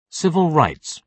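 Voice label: "civil rights", British English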